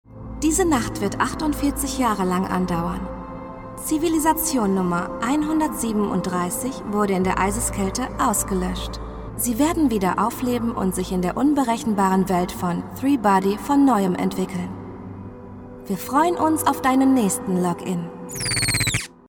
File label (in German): Hoerspielrolle; Die drei Sonnen